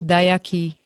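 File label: "dajaký", Slovak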